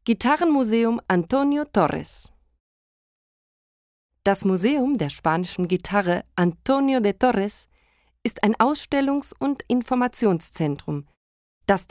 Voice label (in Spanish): AUDIOGUIA ALEMAN; ALMERIA; museo de la guitarra